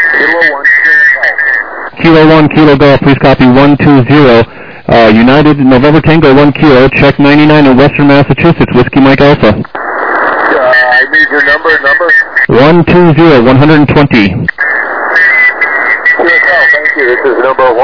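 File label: lower sideband 7MHz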